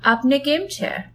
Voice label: how are you, gujarati